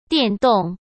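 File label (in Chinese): "电动", Mandarin Chinese; hsk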